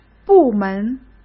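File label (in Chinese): "部门", Mandarin Chinese; hsk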